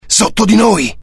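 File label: curious, Italian; mohaa; dialogue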